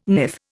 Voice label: "niff", Indian